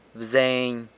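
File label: "bzeiny", deer, San Lucas Quiaviní Zapotec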